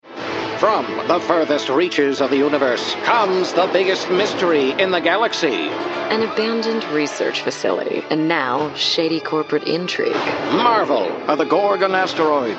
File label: Male Old Timey